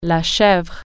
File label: goat, french